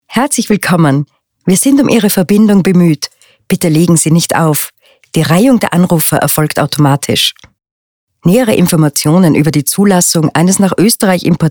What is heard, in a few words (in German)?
Anrufbeantworter